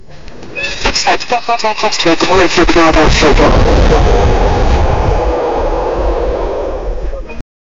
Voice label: an old portugese cockpit, aviation

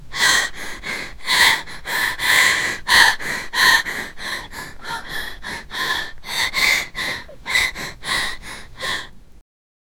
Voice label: realistic sound of a 15 y, teenage girl

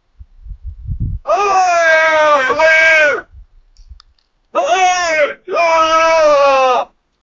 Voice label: a angry man was shouting, furious

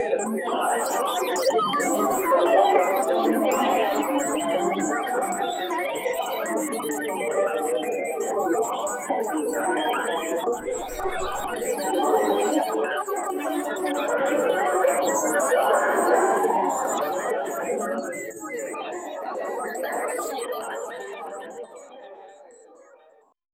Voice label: cyberpunk people conversa, city

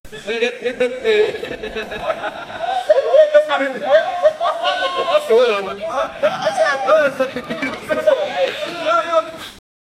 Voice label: two men and women talking

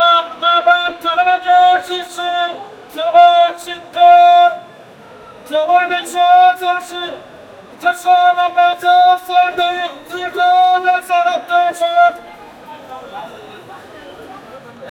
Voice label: uzbek chorsu bazaar a man, calling

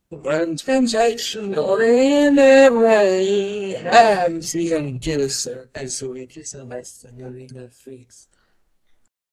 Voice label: the voice arabic, announcements

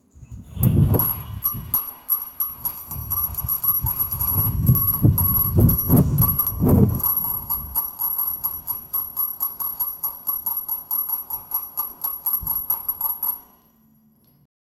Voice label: boy

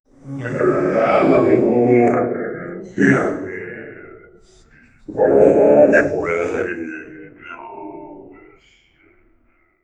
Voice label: demons talking in hell

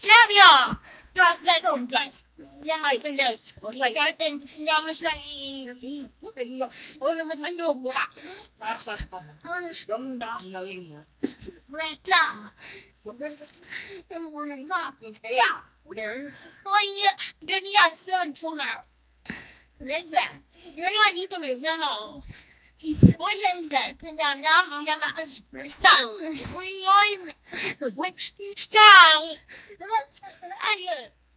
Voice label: two girls arguing about t; fight

